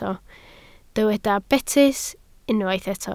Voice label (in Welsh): "betys", Welsh